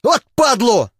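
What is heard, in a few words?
damage, Ukrainian; combat ukr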